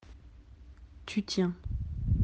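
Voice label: "tenir", French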